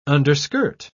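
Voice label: "underskirt", English, British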